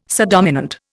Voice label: "subdominant", Indian